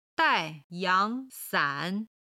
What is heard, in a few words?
"dai4yang2san3", Chinese